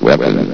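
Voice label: "weapon", male synthesized voice; vox; source engine; hl1; video games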